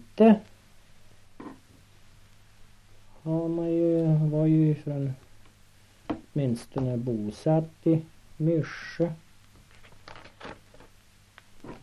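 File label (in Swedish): Näs Jämtland, dialekter; Folkminnen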